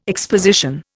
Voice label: "exposition", Indian